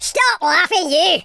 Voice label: "just you wait", Scotsman; speech

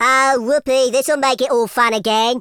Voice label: "collect", Teenage Angst speech

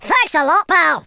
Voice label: collect, Scotsman; speech

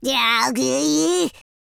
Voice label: angry, Teenage Angst; speech

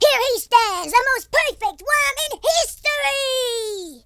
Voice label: victory, American Wrestler

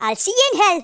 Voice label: "kamikaze", Geordie; speech

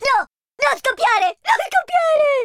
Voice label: uhoh, Italian

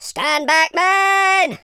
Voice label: "kamikaze", Pirate

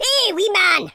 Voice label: oi nutter, Scotsman; speech

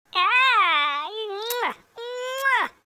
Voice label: happy

